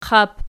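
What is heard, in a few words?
"qap" nominative, Archi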